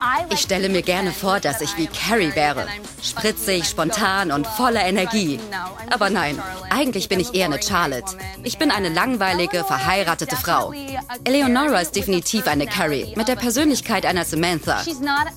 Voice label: Voiceover, Overlay, German